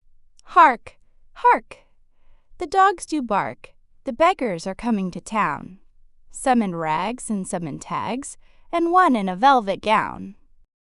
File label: fem us; Hark Hark the Dogs Do Bark